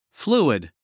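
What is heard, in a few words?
"fluid", English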